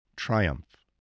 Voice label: "triumph", voice